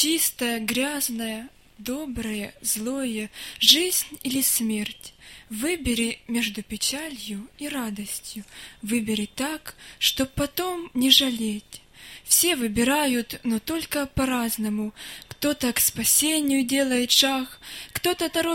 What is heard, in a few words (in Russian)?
Выбор (Стих)